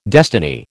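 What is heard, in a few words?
"destiny", American English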